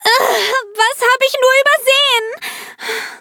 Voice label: defeat, de; cookierun